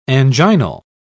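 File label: "anginal", British English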